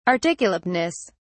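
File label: "articulateness", English, British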